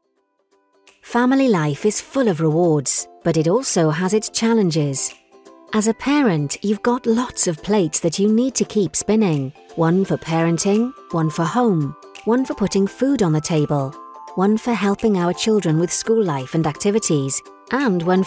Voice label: explainer

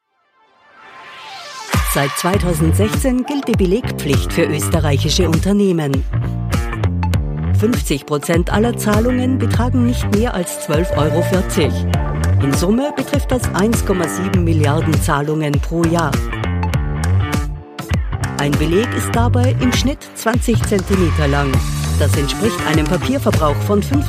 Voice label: austrian female general montage

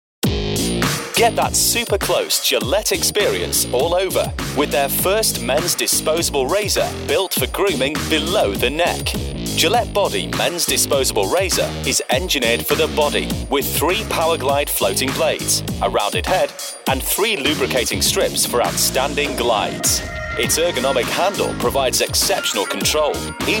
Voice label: in store ad; gillette

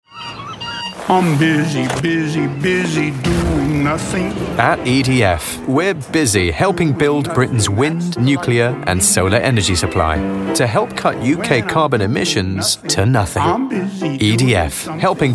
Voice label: commercial

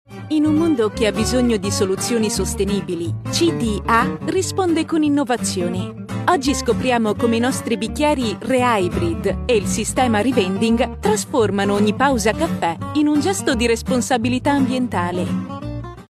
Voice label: italian female corporate

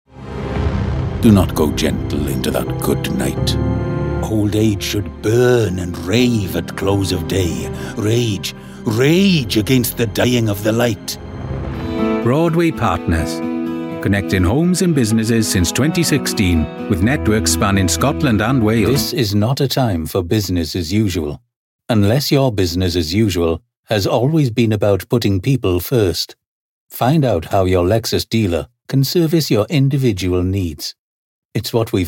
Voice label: Welsh commercial

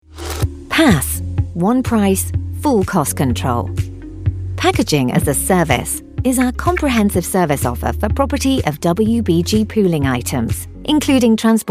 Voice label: explainers

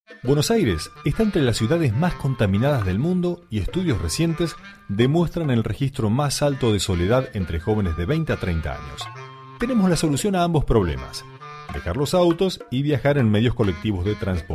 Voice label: latin spanish tutorial

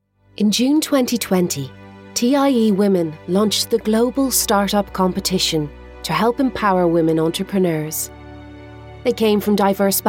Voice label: irish voiceover documentary

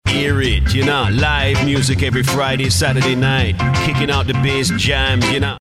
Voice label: jamaican

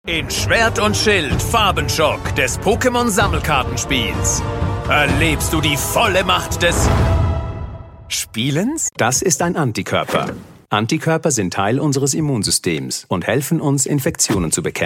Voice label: german reel

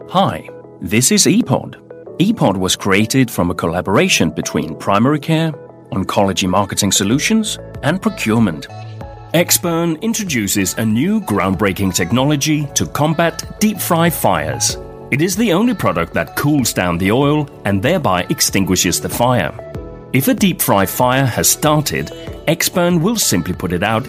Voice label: Danish male, English

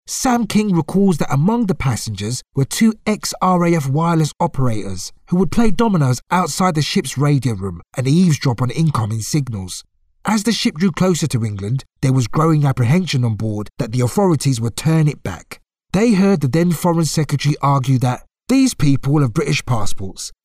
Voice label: British African cockney commercial

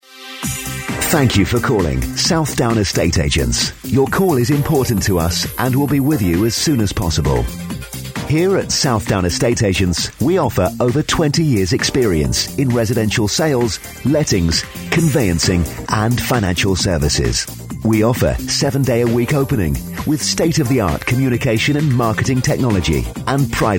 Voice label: telephone, voiceover

